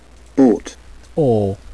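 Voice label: "bought", British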